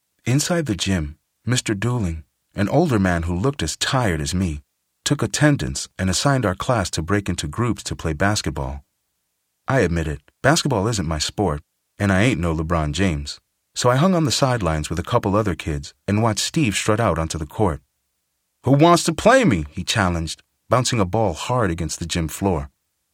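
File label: male nonfiction biography, narrator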